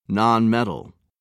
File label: "nonmetal", en uk